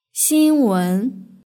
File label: "xinwen", Chinese; hsk4